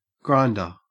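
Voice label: "grinder", Australian English; pronunciations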